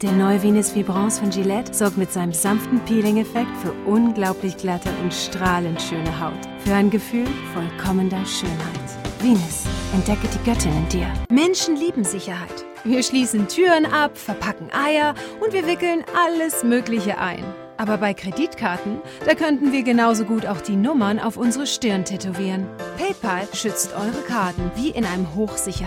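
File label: German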